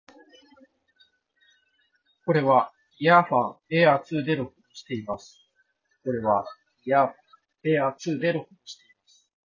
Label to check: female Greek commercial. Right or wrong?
Wrong